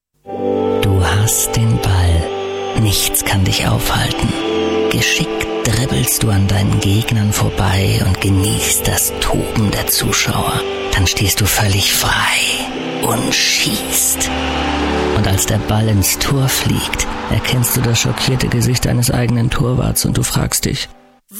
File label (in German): werbung; Fürstenberg Werbespot - Tor